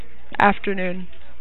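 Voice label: "afternoon", USA, female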